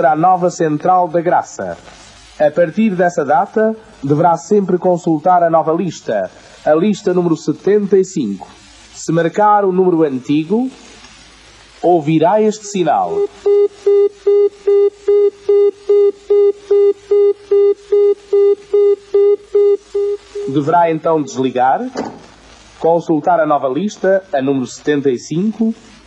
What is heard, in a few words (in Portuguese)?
spot telefones